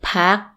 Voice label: rest, Thai

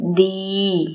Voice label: good, Thai

